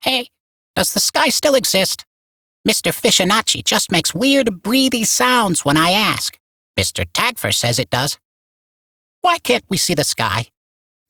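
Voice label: Barks Bird, English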